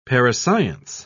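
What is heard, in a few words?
"parascience", British English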